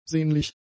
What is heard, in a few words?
"sehnlich", German; adjectives